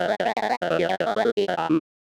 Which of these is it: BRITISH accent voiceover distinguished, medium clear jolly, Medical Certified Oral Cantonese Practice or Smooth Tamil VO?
medium clear jolly